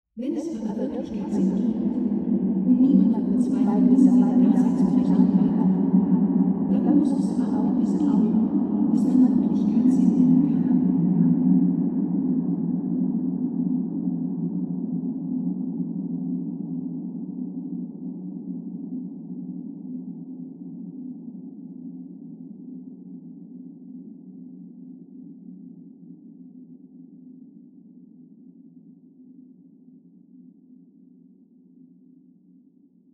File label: gasometer oberhausen female